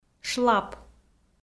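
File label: "шлап", Komi, female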